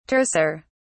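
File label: "terser", English, British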